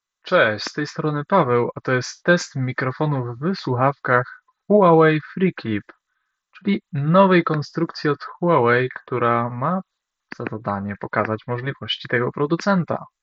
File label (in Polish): mikrofony test cisza